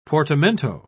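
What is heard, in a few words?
"portamento", British English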